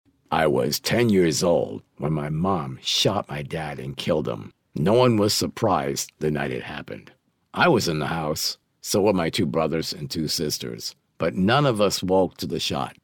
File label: audiobooks